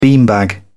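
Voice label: "beanbag", English, British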